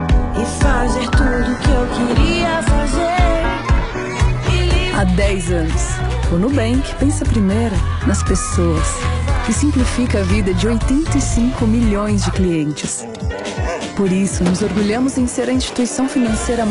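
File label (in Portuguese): locução conversada sóbria discreta; Nubank 85Milhoes de Clientes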